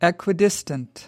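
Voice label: "equidistant", American English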